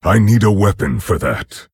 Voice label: Orc Emote ERROR NEED WEAPON; Nerzhul

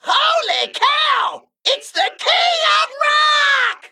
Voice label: Male Mech Emote Start

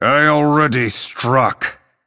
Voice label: Male Night Elf

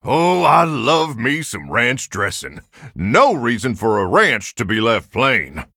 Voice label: Human Emote Flavor

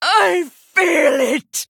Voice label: Female Blood Elf Death

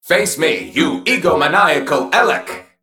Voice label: Male Mech Play

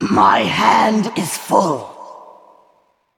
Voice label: Female Val'kyr

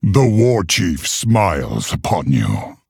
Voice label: Male Orc Thanks

